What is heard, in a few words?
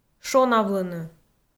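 "шонавлыны", Komi, female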